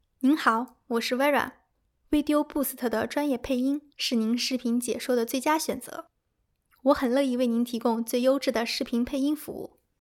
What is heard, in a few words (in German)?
Chinesisch Mandarin, voiceover